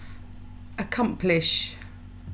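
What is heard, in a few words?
"accomplish", British female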